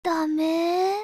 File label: negative, radio; csgo; custom radio